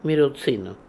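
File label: "Mirozinoo", pronunciations; Fourth Way; Beelzebub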